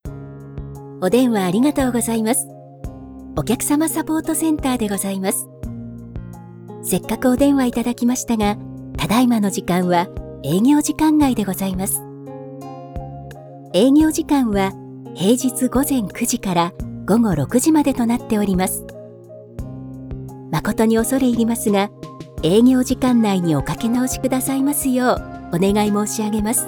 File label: japanese ivr